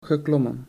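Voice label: "geklommen", Dutch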